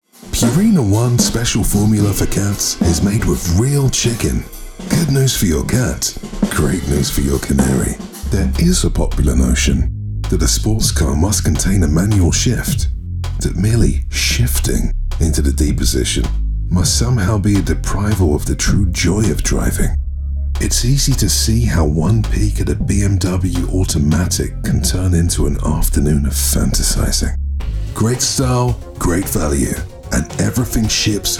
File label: commercial